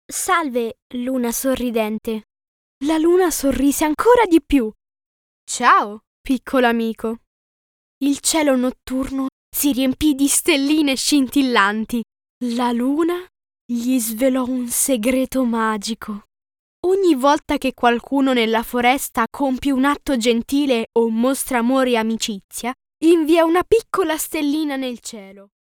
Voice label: audiobook